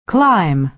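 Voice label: "climb", American English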